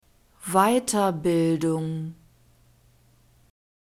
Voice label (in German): "weiterbildung", German